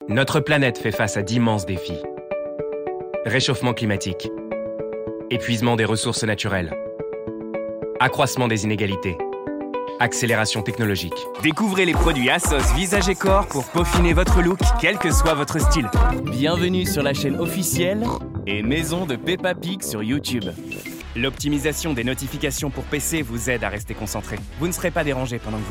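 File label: FR General, French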